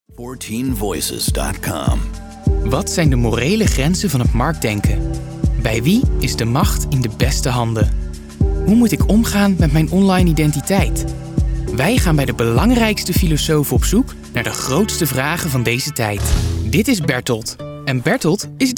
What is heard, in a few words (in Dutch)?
Narratief